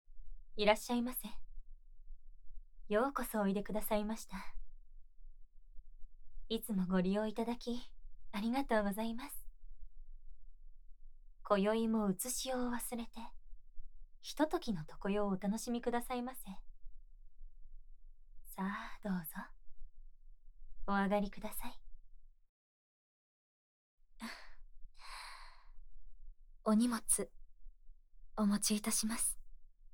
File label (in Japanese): おもてなしの耳かきと耳舐め手コキ SEなし